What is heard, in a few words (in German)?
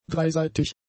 "dreiseitig", German; adjectives